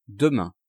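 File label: "demain", French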